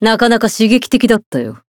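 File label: win, Japanese; xianhua; zodiac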